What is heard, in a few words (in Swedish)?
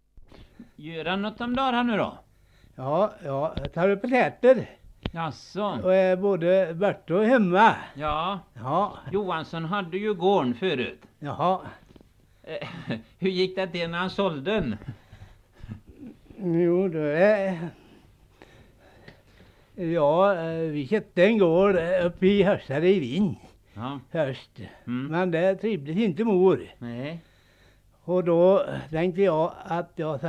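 Rångedala Västergötland, dialekter; Svenska sagor; Folkminnen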